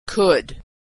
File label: "wolf could", pronunciation